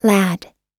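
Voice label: "lad", American English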